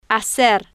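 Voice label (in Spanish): "hacer", Spanish, female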